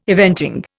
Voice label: "eventing", Indian